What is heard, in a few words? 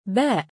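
"baa" Arabic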